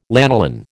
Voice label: "lanolin", American

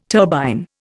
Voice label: "turbine", Indian

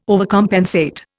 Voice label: "overcompensate", Indian

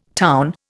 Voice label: "town", Indian

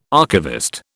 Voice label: "archivist", British

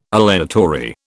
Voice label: aleatory, British

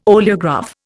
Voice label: "oleograph", Indian

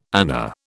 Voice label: "ana", British

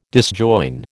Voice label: "disjoin", American

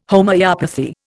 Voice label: "Homoeopathy", Indian

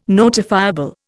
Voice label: "notifiable", Indian

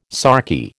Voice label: "sarky", American

